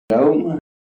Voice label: kent goudhurst goat, accents

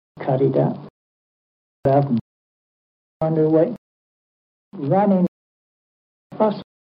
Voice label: kent strut, kent accent; warren st

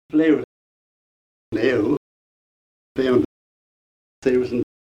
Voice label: kent mouth, accents; stoke

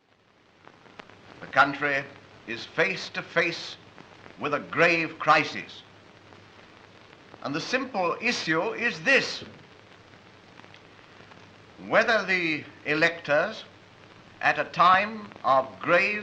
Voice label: rp, accents sbe; stanley baldwin

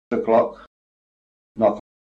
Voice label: kent goudhurst lot, accents

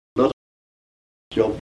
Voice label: kent lot, accents; stoke

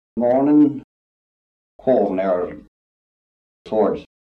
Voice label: kent goudhurst thought, accents

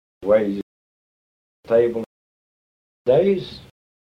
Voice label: kent face, kent accent; denton

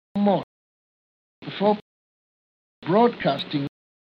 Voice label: bbc, accents sbe; hg wells; bbc 1931; russian; thought

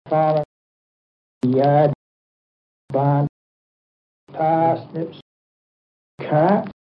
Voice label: kent bath, kent accent in the 19th century; warren st

